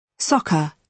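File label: "soccer", British English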